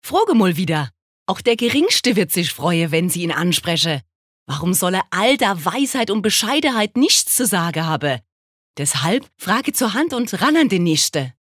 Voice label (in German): Dialekt, Mannheimerisch